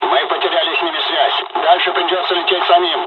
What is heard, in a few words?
dialog, dialogs russian; us midway; War Thunder